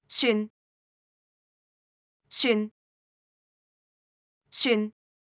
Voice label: "船", Cantonese; Traditional Chinese